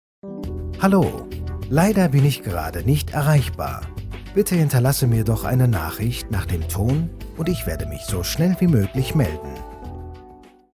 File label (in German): ich ansage mit musik, anrufbeantworter